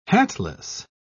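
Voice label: "hatless", English, British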